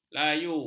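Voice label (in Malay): "layu", Malay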